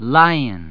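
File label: "lion", English word; 単語絵カード * どうぶつ; らいおん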